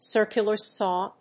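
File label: "circular saw", English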